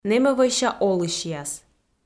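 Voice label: "нэмӧвӧйся олысьяс", Komi, female; audio dict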